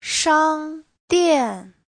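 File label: "shang dian", 商店, Chinese; (danh từ) cửa hàng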